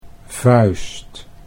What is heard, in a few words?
"vuist", Dutch